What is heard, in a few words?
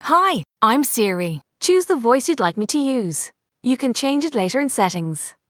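Irish English; iOS 26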